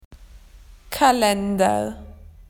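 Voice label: "calendars", Australian English; PictureDictionary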